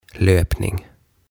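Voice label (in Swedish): "Löpning", Swedish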